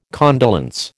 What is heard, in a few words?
"condolence", American